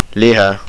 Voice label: meat, estonian